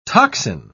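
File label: "tocsin", British English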